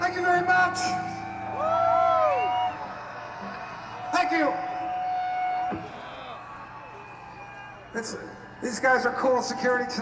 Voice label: Chatting; Welcome To Rockville; Jacksonville, FL